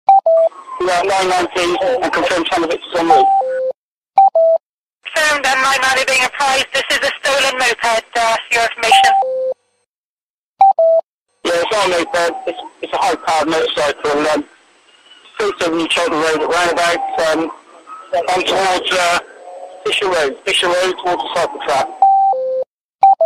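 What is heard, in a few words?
british police comms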